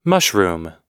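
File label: "mushroom" US English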